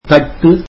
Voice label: tamil plate

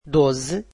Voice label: portuguese twelve

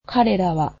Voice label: "they", Japanese

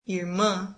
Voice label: brazilian sister

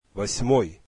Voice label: russian eighth

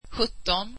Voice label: swedish seventeen

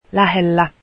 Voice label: finnish near

